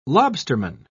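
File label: "lobsterman", English, British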